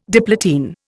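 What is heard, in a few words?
"diplotene", Indian English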